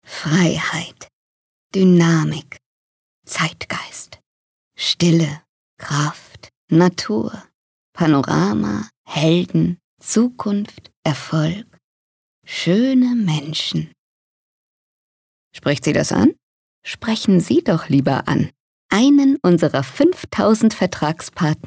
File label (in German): WERBUNG AUTOHAUS